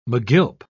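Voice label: "megilp", British English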